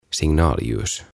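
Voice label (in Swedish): "Signalljus", Swedish; OTAVA KONSERNI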